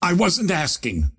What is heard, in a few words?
lasthit pain; ringmaster